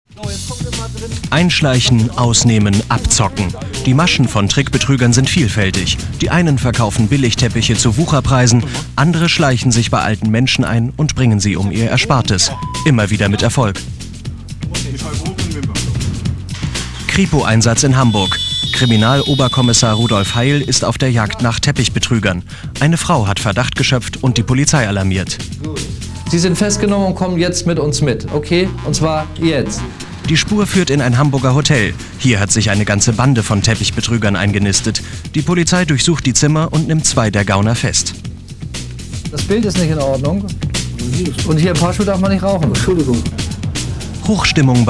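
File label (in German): kommentar, sprecher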